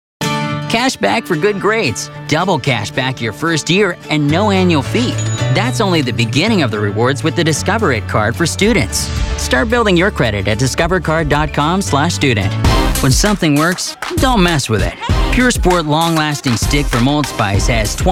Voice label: Commercials